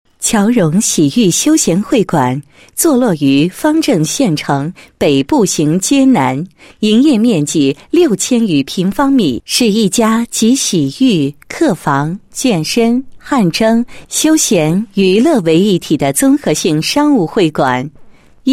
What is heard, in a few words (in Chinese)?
女 宣传广告 洗浴休闲会馆宣传简介; 侨融洗浴休闲会馆宣传简介